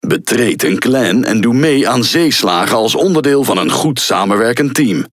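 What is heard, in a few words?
Speech Tutorial, Dutch; World Of Warships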